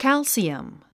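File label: "calcium", English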